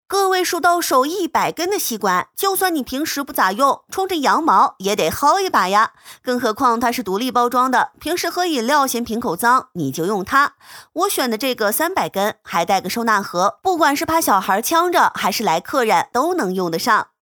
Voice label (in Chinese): 日常用品带货